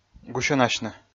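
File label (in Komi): "гусьӧнасьны", Komi, male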